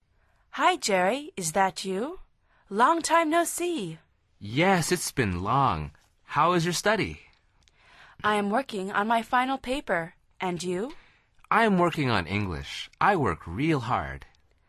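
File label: dialog, Conversación en Ingles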